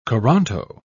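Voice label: "coranto", British English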